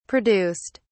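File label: "produced", English, British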